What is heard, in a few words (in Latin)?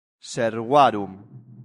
"servarum", latin; santillana